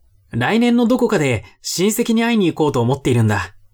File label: man